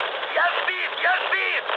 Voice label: aircraft destroyed, mood med, speech ru; War Thunder